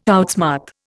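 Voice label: "outsmart", Indian English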